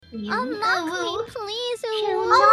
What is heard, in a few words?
unlock me please uwu